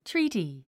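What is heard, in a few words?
"treaty", English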